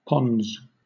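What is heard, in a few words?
"pons", British English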